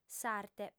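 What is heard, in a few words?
"s'arte", Sardinian, female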